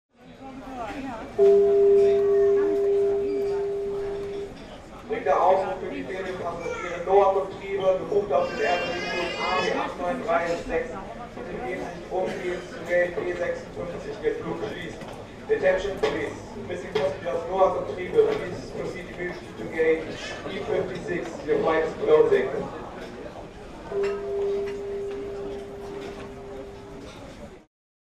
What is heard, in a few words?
your flight is closing, Flughafen Ansagen